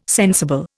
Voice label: "sensible", Indian

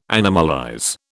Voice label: "animalize", British

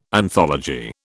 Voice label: "anthology", British

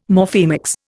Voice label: "Morphemics", Indian

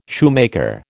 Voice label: "shoemaker", American

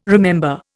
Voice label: "remember", Indian

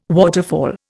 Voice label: "waterfall", Indian

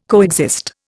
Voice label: "coexist", Indian

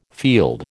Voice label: "field", American

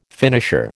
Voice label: "finisher", American

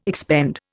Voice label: "expend", Indian

